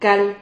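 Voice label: "cal", Spanish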